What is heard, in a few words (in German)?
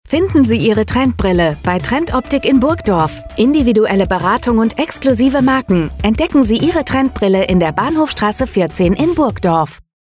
Funkspot; Trend-Optik-GmbH